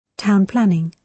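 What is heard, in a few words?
"town planning", British English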